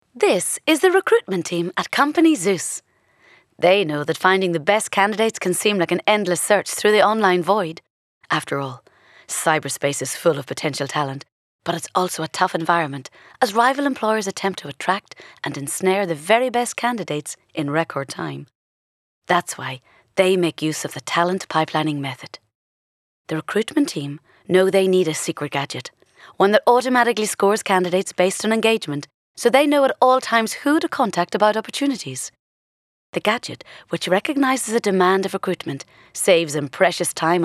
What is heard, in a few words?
Corporate